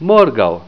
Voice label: "morgau", esperanto